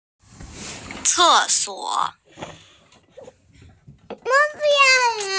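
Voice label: "ce4suo3", Mandarin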